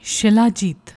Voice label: "shilajeet", Punjabi; ਸ਼ਿਲਾਜੀਤ